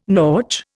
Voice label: "nautch", Indian